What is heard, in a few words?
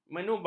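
"menuba", Malay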